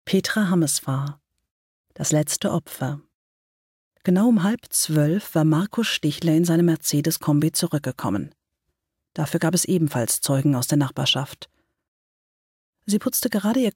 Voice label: krimi, frauen; Sprecherinnen, Weibliche

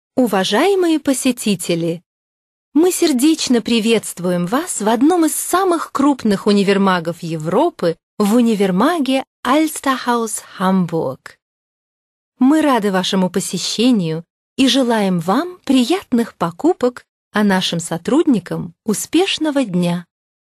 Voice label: russisch begruessung, frauen

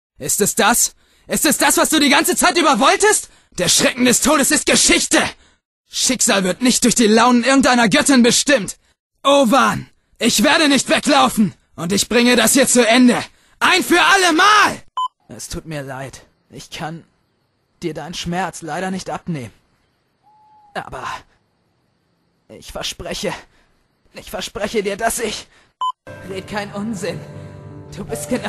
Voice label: Synchron, maenner; diverse-synchron; rolle-hikosaka

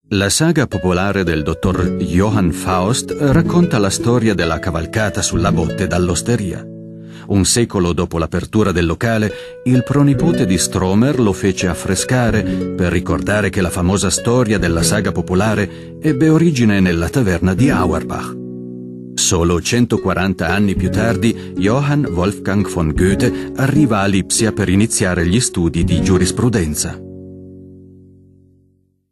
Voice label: dokumentation, maenner aus aller welt

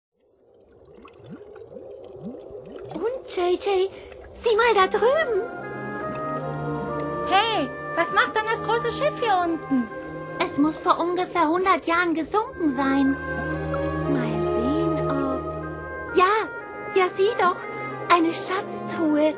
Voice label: zeichentrick, frauen

